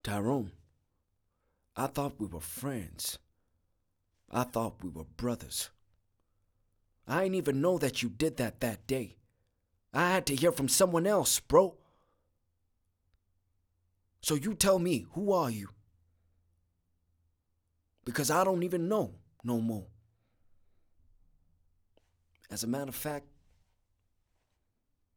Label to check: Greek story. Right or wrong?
Wrong